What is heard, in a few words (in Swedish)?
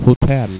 "hotell", Swedish